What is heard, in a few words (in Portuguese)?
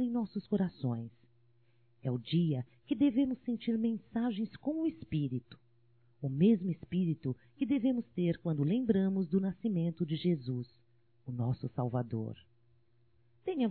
NATAL NEUTRO (F); Natal e ano novo; fem; Telemensagens 2017